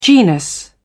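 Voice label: "genus", British English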